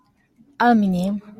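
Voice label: "aluminum", Japanese speaker of English, female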